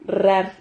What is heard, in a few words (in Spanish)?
"RRAR", Silabas